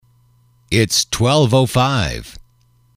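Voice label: its twelve five, male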